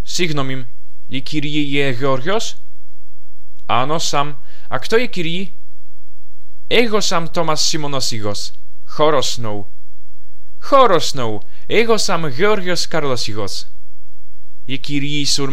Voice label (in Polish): Lekcja dialog; surmenia